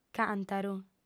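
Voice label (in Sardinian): "càntaru", female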